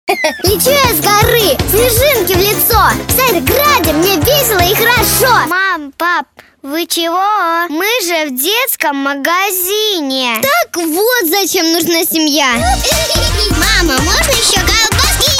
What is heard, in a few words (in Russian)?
11-12 let